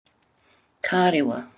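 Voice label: "kārewa", Maori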